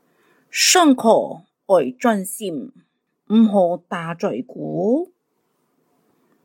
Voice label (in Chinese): 客語 課室生活用語 海陸腔